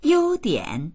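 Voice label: "you1dian3", Mandarin Chinese; 优点; 利点、優れている所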